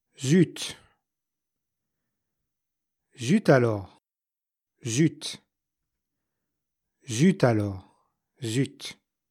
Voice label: Darn in French Zut